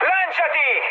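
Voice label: Italian bailout mood high, speech; War Thunder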